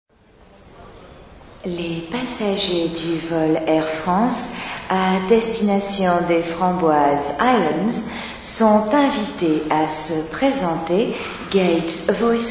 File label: Airport Announcement; FR/GB